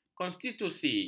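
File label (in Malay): "konstitusi", Malay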